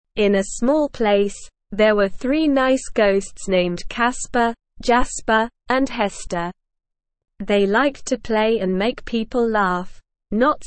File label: ESL Short Stories for Kids Beginner SLOW Reading; The Three Friendly Ghosts